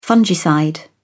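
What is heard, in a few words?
"fungicide", British English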